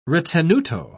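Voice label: "ritenuto", British English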